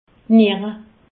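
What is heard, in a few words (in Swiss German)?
"niere", Alsatian; medecine; reins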